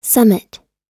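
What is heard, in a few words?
"summit", US English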